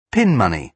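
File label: "pin money", British English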